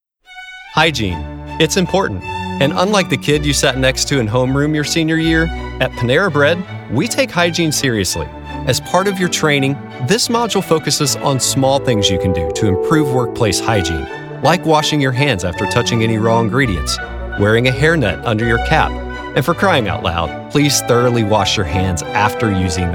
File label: E LEARNING